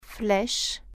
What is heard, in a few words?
arrow, french